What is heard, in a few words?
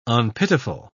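"unpitiful", British English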